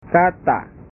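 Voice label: "kata", Indonesian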